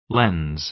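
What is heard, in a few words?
"lens", American English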